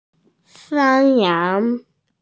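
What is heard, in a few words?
"shan1yang2", Mandarin Chinese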